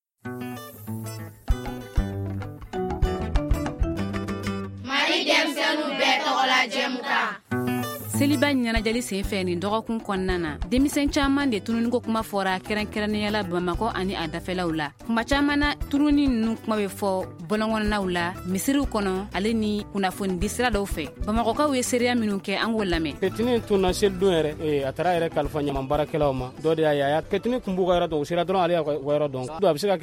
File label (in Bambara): magazine bamanankan